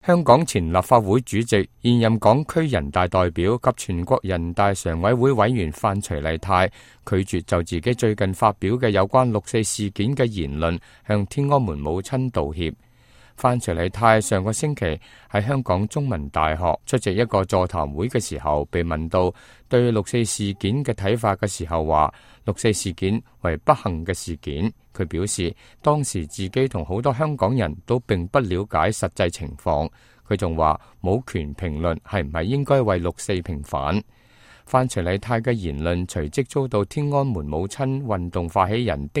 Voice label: cantonese, news